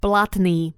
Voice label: "platny", slovencina